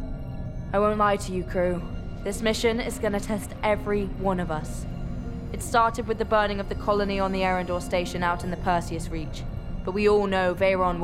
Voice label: Video Game Commander